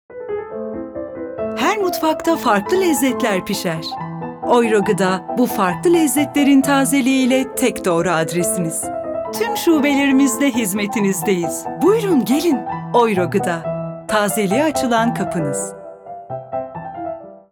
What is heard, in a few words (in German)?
Radiospot Frau; Eurogida